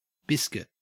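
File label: "biscuit", Australian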